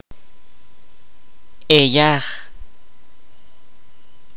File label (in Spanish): "diente", kaqchikel